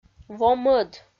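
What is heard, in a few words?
"во-мӧд", Komi, female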